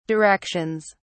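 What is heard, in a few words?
"directions", British English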